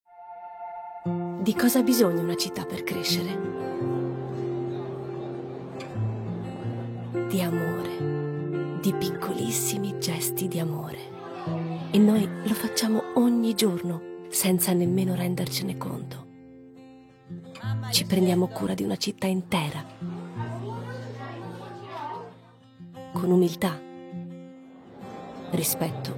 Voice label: italian political ads; professionelle italienische Schauspielerin